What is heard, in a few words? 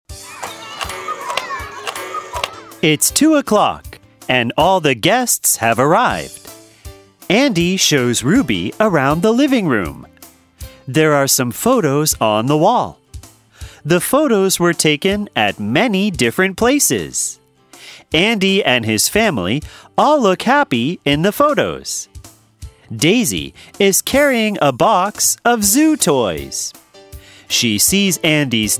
Storytime English Ver